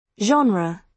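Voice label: "genre", British English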